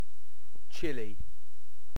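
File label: "chili", British English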